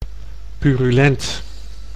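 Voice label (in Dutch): "purulent", Dutch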